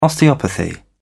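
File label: "osteopathy", British English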